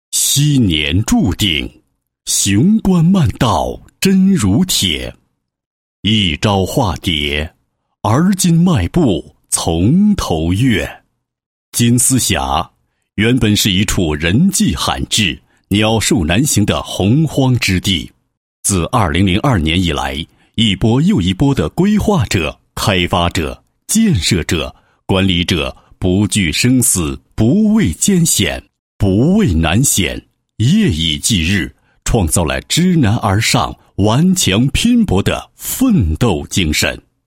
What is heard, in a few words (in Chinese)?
男 解说词 宣传片解说词; 丝峡景区5A创建